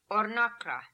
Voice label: "Ornakra", pronounciations; Fourth Way; Beelzebub